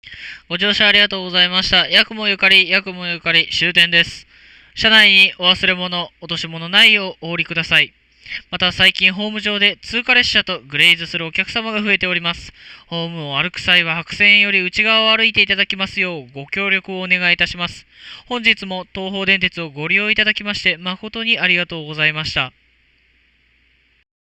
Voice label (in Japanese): アナウンス; サンクリ42